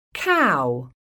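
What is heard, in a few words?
"cow", English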